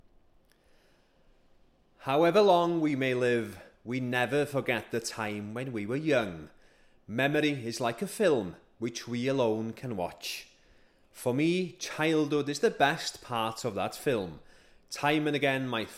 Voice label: english